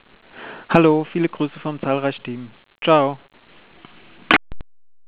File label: voicemail